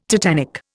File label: "tetanic", Indian